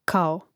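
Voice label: "kao", Croatian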